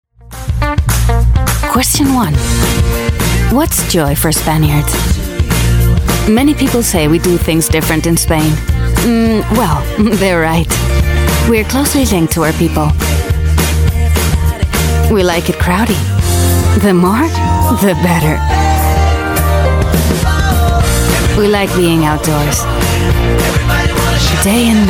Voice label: Spanish European commercial English; Royal